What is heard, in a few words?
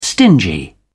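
"stingy", English, British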